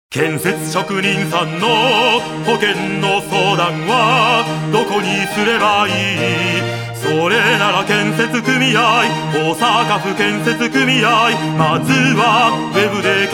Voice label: radio; osaka